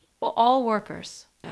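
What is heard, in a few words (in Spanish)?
Voice Acting, Spanish, female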